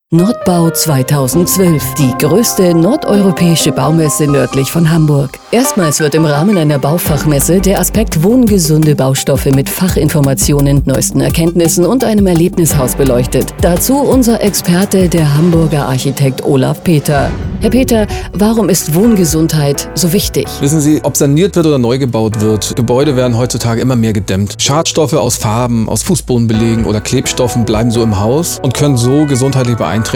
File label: Infomercial